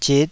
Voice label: "zit5", taiwanese